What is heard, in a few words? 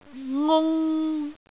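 "ngung3", Cantonese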